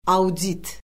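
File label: "audit", Azerbaijani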